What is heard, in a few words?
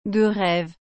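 "de reve", French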